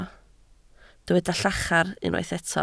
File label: "llachar", Welsh